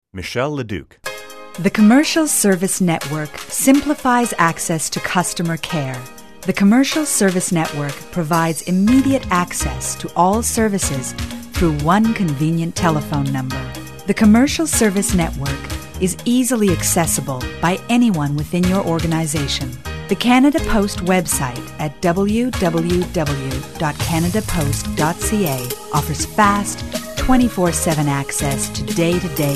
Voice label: narration female